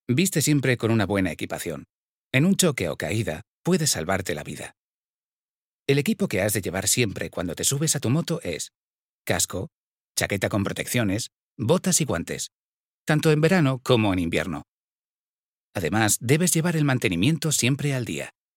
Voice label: spanish e-learning

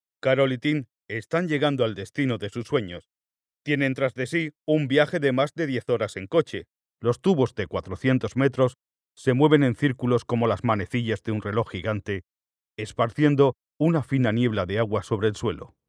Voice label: spanish documentaries

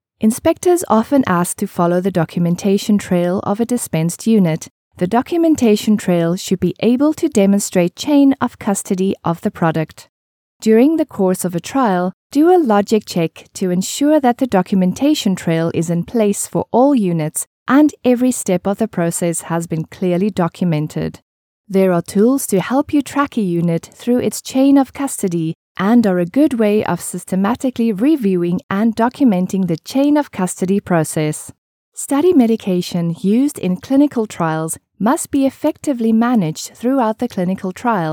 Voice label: english international medical narration; Synchronsprecher aus Südafrika

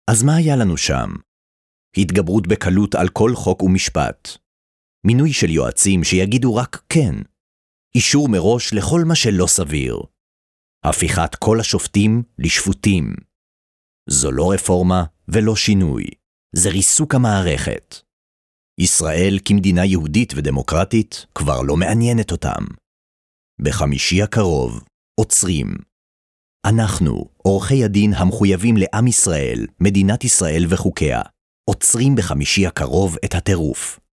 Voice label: hebrew political ads

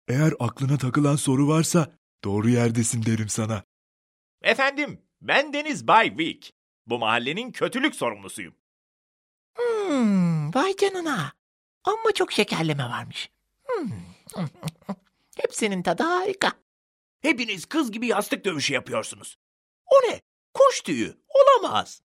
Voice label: turkish animation

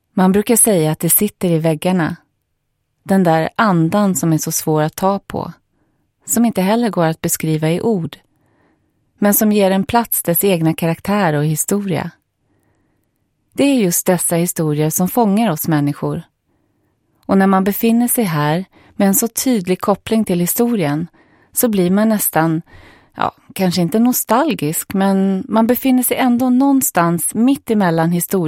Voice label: swedish narration

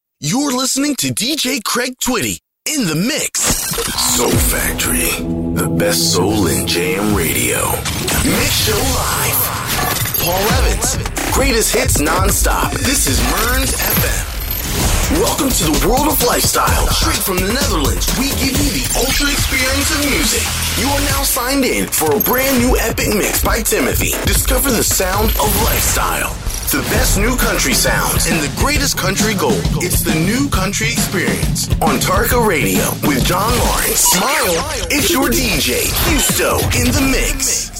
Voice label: english american others

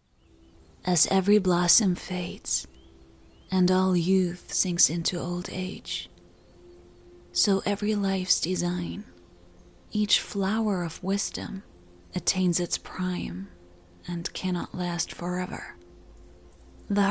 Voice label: english movie trailers

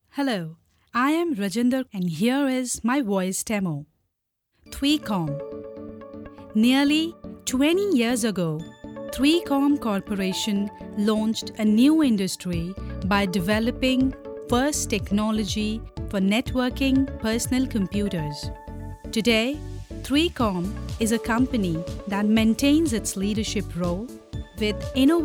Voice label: english narration

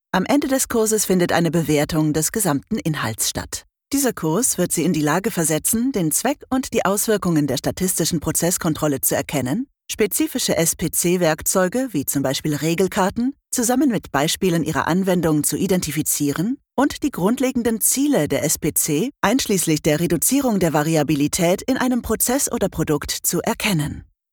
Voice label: german e-learning

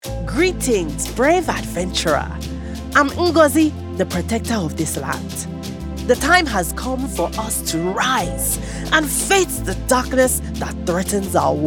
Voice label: English African

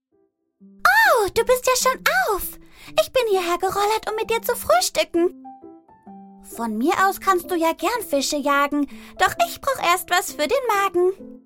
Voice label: german others

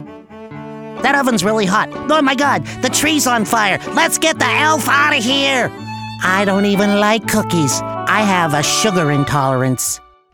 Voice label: english american animation

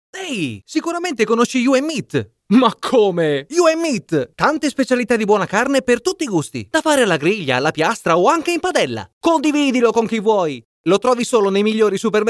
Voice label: italian promos

